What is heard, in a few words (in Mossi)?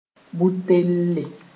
"butelle", moore